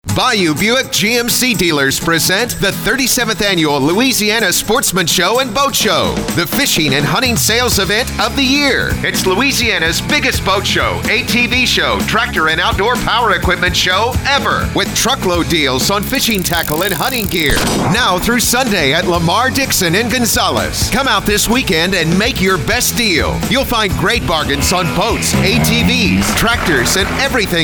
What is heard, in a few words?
radio spot